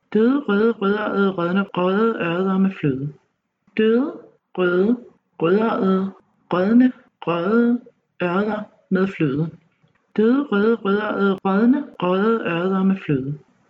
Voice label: tongue twisters, Danish

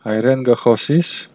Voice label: do you speak, armenian western; Հայերէն կը խօսի՞ս; (Hayeren guh khosees?)

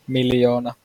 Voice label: "million", finnish

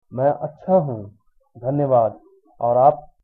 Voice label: fine, hindi; मैं अच्छा हूँ, धन्यवाद। और आप?; maiṁ acchā hūṁ, dhanyavād. aur āp?

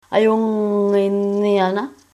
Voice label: goodbye, greenlandic

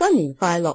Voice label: new year, cantonese; 新年快樂; sànnìhn faailohk

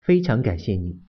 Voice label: "thanks", Mandarin

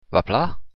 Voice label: how are you, occitan

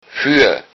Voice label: fire, lowsaxon

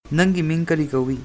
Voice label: name, manipuri

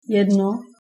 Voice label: one, Czech

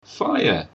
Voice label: "fire", English

